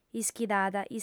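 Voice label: "ischidada", female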